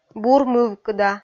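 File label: "бур мывкыда", Komi, female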